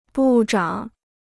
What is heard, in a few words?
"部长", Chinese